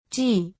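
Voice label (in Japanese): "ぢ", 日本語; 五十音基礎聽解題目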